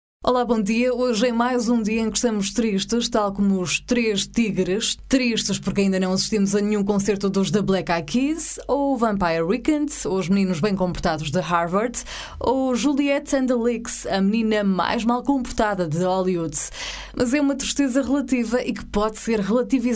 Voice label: female portuguese voice over sales; portugal